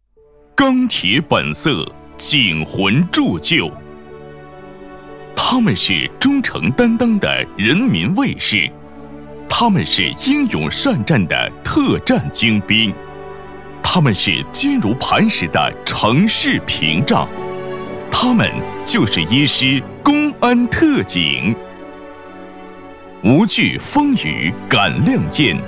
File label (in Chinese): 宣传; 公安铁军